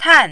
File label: "tan4", Mandarin